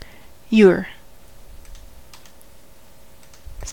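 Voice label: "you're", US English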